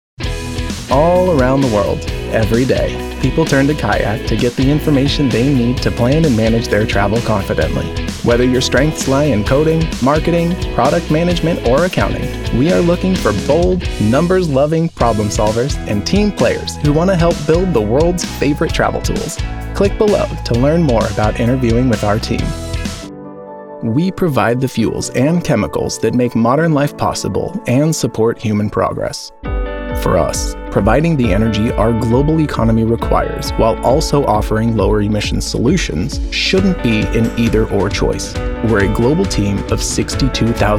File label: corporate narration